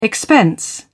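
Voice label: "expense", English, British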